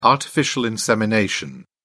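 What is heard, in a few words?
"artificial insemination", English, British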